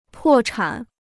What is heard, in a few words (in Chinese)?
"破产", Chinese